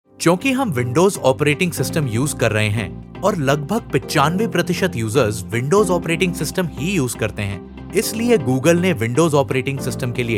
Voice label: hindi corporate videos

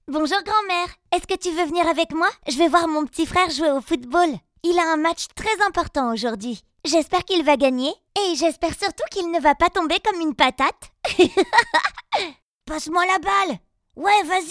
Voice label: french animation; French native voice over talent since 2005

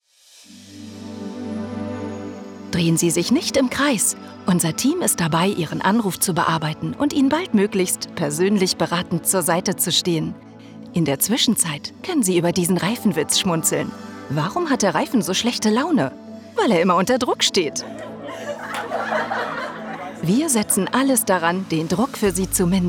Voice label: german ivr

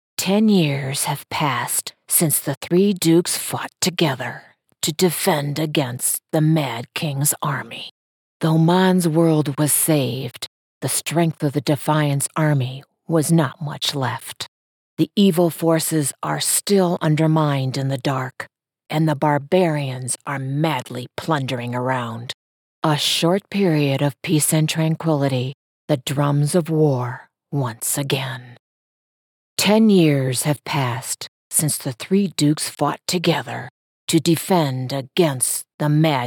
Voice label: english american video games

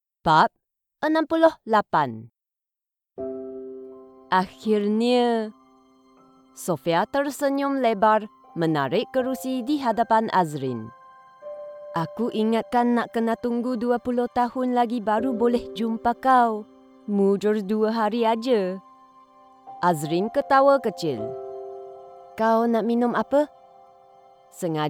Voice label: malay audiobooks

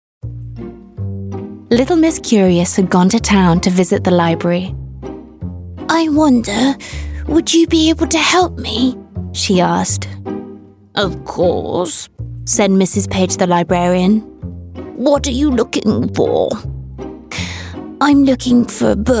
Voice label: english british animation

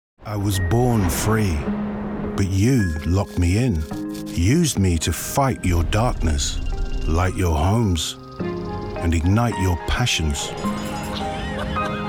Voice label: english british automotive

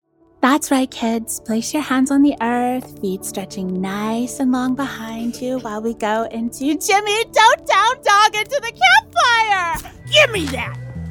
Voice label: english canadian animation

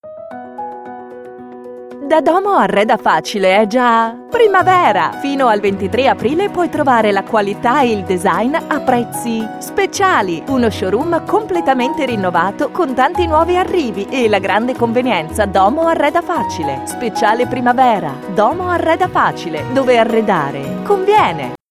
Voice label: italian promos

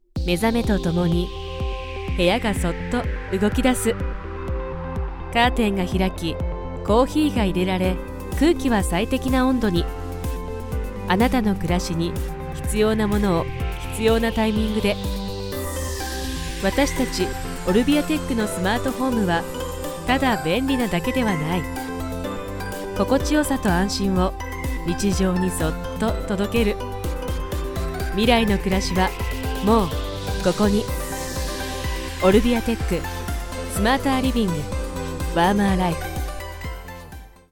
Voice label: japanese explainer videos

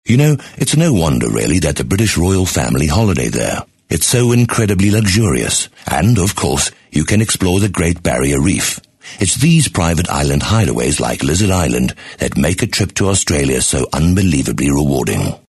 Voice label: english south african documentaries

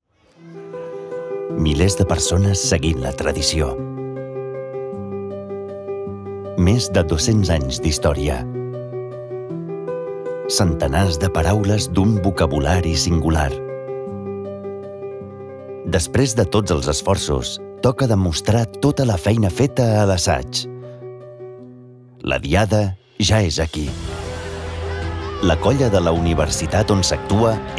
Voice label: catalan documentaries; espanhol da Espanha e catalão

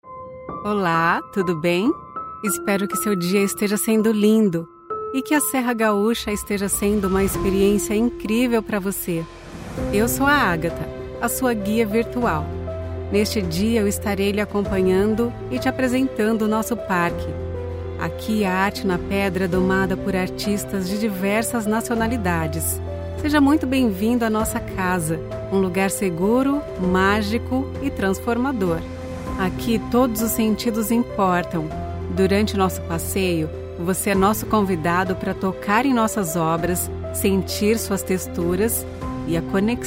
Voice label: portuguese brazilian audio guides; natürliche, vielseitige Stimme und einen neutralen Akzent

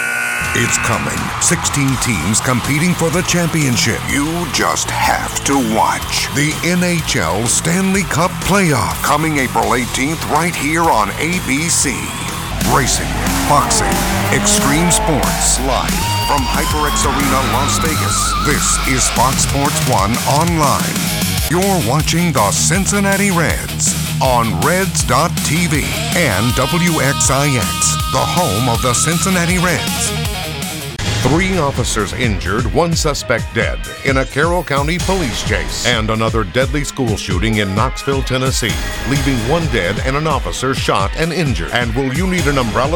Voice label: english american promos

